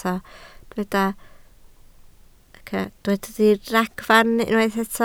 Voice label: "diragfarn", Welsh

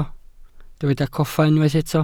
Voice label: "coffa", Welsh

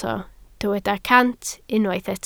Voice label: "cant", Welsh

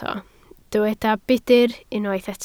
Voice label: "budr", Welsh

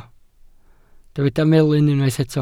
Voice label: "melyn", Welsh

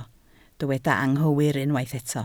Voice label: "anghywir", Welsh

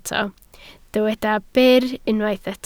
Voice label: "byr", Welsh

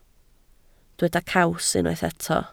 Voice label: "caws", Welsh

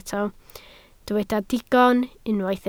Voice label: "digon", Welsh

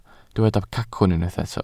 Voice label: "cacwn", Welsh

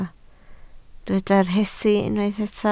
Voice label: "rhesi", Welsh

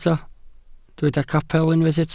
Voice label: "capel", Welsh

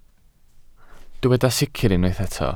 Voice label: "sicr", Welsh

